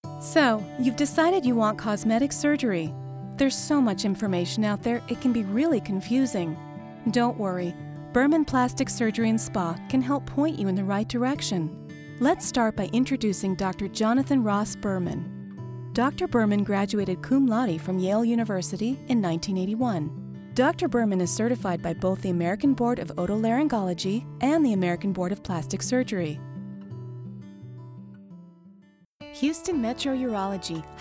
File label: MEDICAL IVR